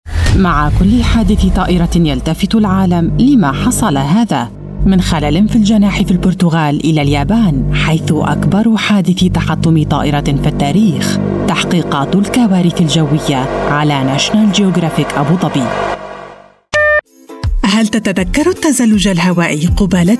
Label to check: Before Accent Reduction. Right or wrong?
Wrong